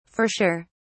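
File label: "for sure", en, uk British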